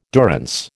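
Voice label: "durance", American English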